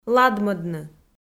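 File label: "ладмӧдны", Komi, female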